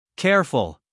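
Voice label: "careful", American English, male; everyone-can-use-english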